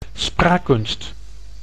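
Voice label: "spraakkunst", Dutch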